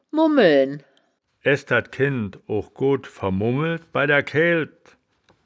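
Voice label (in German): "mummeln", Wittlicher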